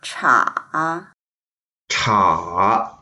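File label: "cha3", Mandarin Chinese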